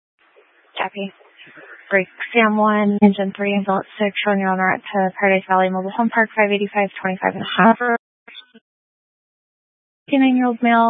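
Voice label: Fire Dispatch, scanner audio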